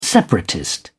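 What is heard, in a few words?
"separatist", British English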